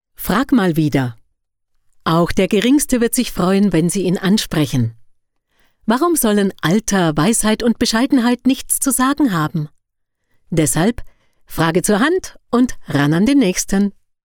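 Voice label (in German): Schauspiel Freundlich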